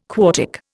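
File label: "quartic", Indian English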